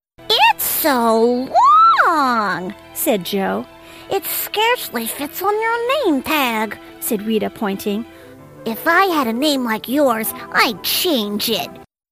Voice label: childrens audiobook narration